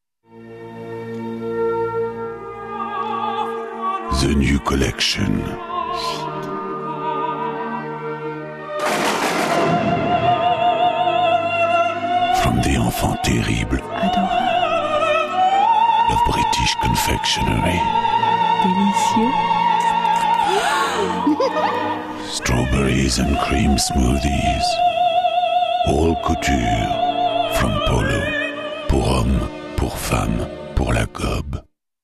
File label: AD ENG; Polo